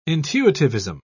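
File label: "intuitivism", English, uk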